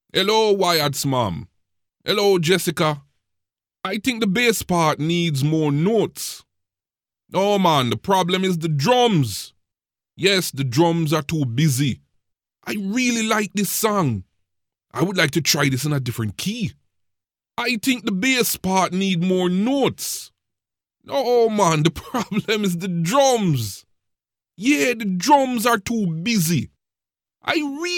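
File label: English Jamaican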